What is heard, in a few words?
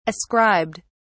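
"ascribed", British English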